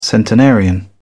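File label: "centenarian", British English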